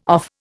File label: "off", Indian